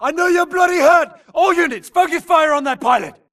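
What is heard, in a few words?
grunt detect Player Low Health; imc; Titanfall 2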